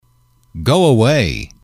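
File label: "go away", English, male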